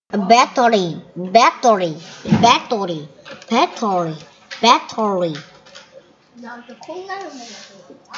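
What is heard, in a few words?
"battery", spoken word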